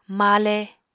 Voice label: "male", Apatani